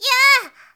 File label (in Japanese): "ya", syozyo